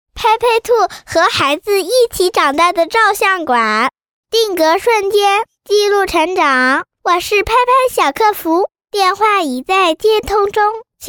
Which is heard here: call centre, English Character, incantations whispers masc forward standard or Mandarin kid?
Mandarin kid